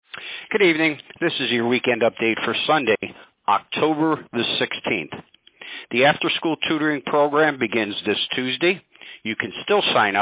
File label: Robocall